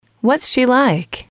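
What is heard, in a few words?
what is she like, female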